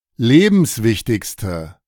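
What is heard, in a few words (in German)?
"lebenswichtigste", German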